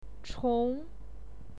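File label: "chong", Mandarin Chinese, second tone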